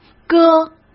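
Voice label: "搁", Mandarin Chinese; hsk; chinese-flashcards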